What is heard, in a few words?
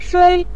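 "shui", Mandarin Chinese, neutral tone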